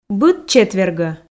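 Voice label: "быд четвергӧ", Komi, female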